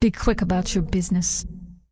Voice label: Undead Female Warrior NPC Vendor